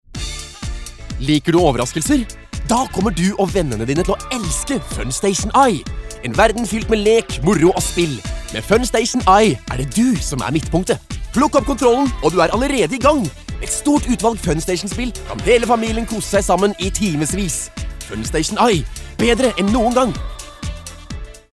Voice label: no cheerful

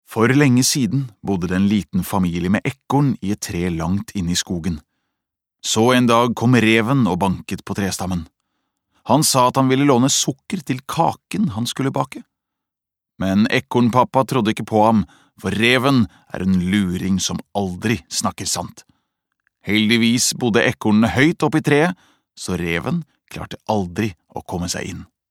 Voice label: no dub narrator

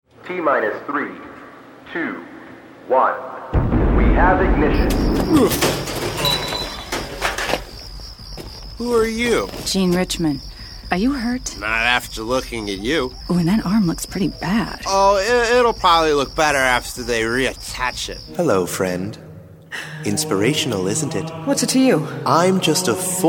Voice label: us characters

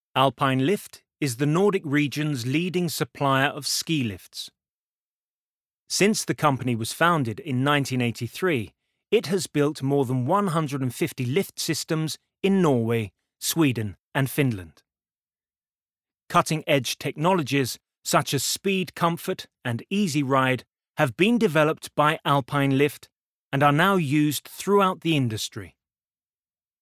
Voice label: uk informativ

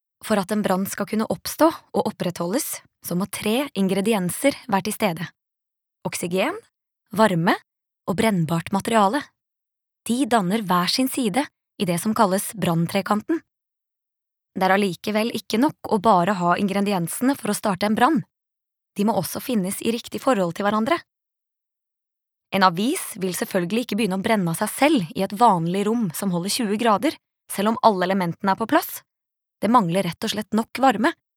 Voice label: Norwegian elearning, norwegian, female

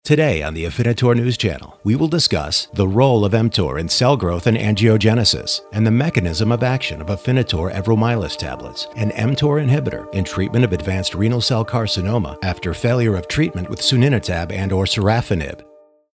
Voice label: us informative

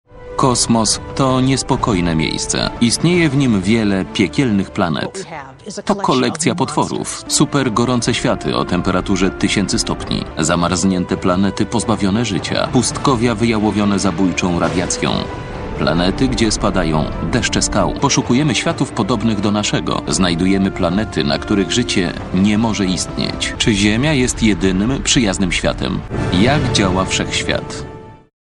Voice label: pl documentary

